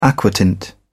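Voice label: "aquatint", British English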